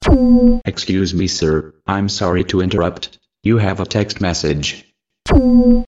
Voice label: Announcing Text